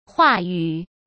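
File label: "话语", Mandarin Chinese; chinese-flashcards; hsk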